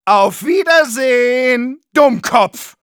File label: taunts, de; Medic